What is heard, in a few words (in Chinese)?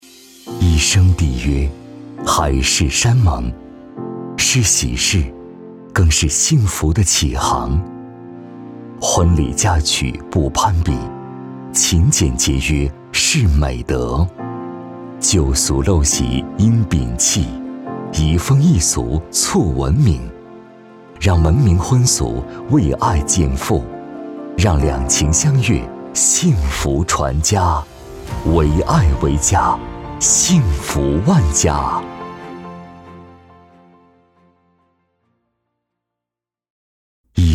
男, 企业, 婚礼, 沉稳, 浪漫